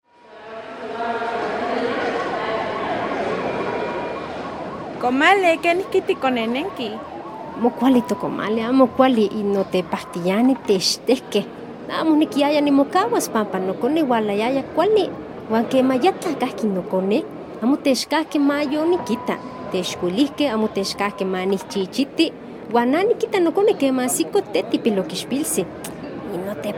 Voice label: Lengua Nahuatl Huasteca; FI Huayacocolta; Violencia Obstetrica

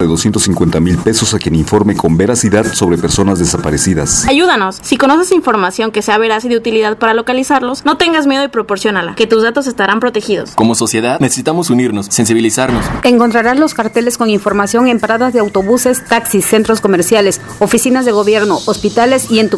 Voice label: Spot Desaparecidos en Español

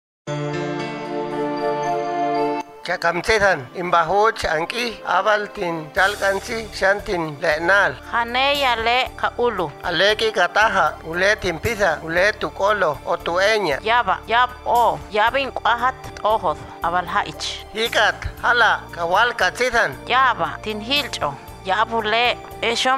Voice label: Lengua Tenek; FI Tantoyuca; Violencia contra las mujeres

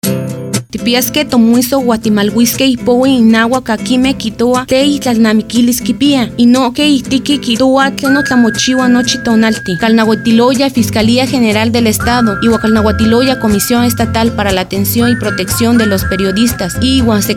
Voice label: Lengua Náhuatl del Centro; FI Orizaba

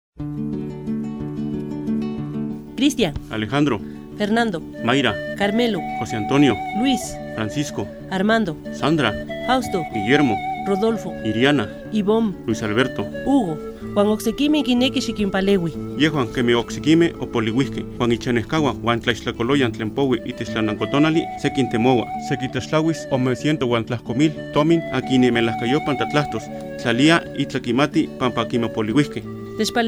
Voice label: Náhuatl de la Sierra; FI Zongolica

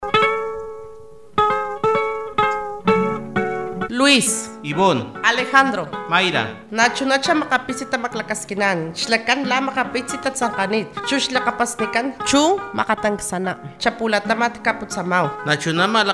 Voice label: Totonaco; FI Papantla; Personas Desaparecidas

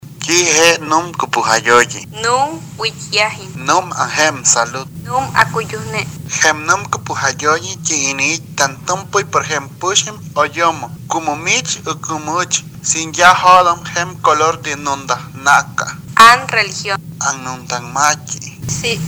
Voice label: Lengua Popoluca; FI San Andrés Tuxtla; Derechos Humanos y Defensores de DH

